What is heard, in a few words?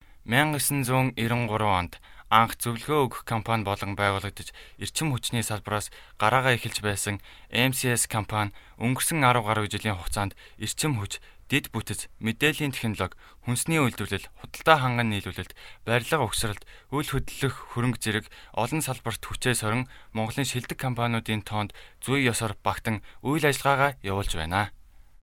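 Mongolian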